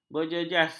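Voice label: "berjejas", Malay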